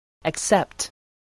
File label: "accept", English voice